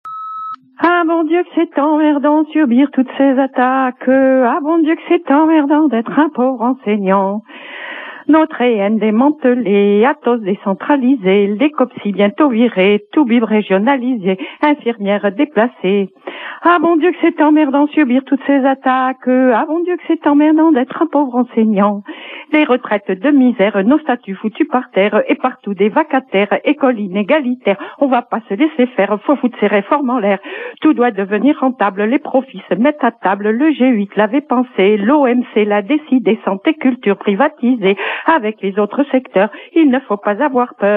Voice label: Greve profs chanson repondeur

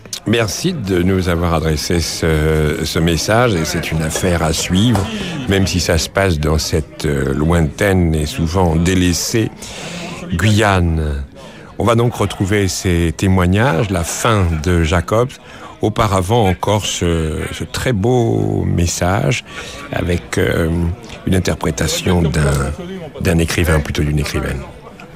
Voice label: Messages du repondeur; Daniel Mermet

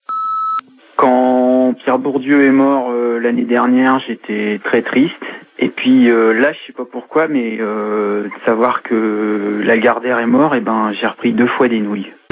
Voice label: Mort Lagardere repondeur

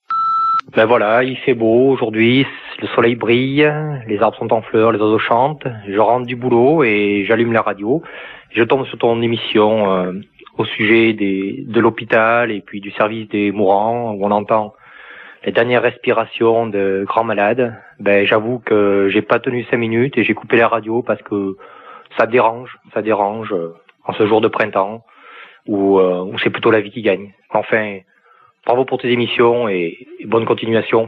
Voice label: Hopital de nuit repondeur

